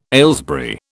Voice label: "Aylesbury", British

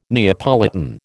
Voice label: "Neapolitan", American

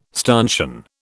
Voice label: "stanchion", British

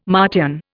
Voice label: "Martian", Indian

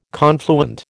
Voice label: "confluent", American

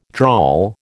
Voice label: "drawl", American

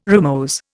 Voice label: "rimose", Indian

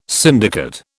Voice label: "syndicate", British

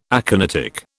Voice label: "aconitic", British

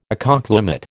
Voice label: "ecoclimate", American

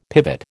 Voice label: "pivot", American

